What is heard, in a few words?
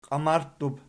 "qiamarttub", Archi